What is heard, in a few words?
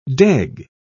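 "deg", British English, dictionary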